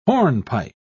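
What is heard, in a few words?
"hornpipe", British English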